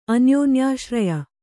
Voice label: "ಅನ್ಯೋನ್ಯಾಶ್ರಯ", Kannada; anyōnyāśraya; noun; = ಅನ್ಯೋನ್ಯಾವಲಂಬನೆ.

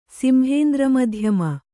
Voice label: "ಸಿಂಹೇಂದ್ರಮಧ್ಯಮ", Kannada

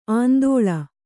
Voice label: "ಆಂದೋಳ", Kannada

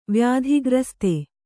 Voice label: "ವ್ಯಾಧಿಗ್ರಸ್ತೆ", kannada

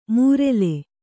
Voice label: "ಮೂರೆಲೆ", Kannada

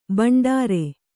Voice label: "ಬಂಡಾರ", Kannada

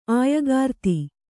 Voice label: "ಆಯಗಾರ್ತಿ", kannada

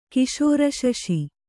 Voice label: "ಕಿಶೋರಶಶಿ", Kannada

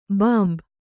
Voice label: "ಭಂಭಾ", Kannada

